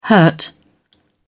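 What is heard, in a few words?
"hurt", English, British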